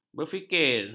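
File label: "berfikir", Malay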